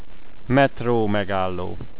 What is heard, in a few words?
subway station, hungarian